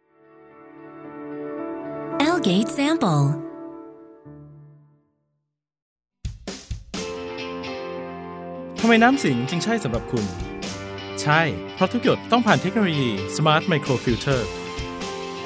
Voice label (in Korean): 태국 남 밝은 톤